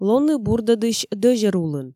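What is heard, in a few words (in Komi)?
"лоны бурдӧдысь дӧзьӧр улын", Komi, female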